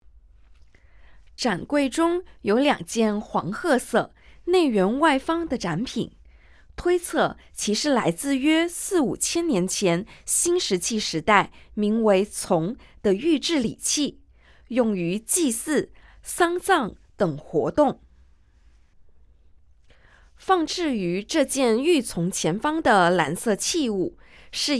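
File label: Mandarin, audio guide; architechure collection heritage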